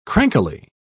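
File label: "crankily", English, British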